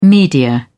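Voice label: "media", English, British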